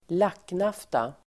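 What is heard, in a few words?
"lacknafta", Swedish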